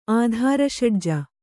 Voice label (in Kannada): "ಆಧಾರಷಡ್ಜ", Kannada